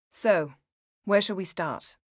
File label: female